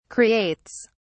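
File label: "creates", English, British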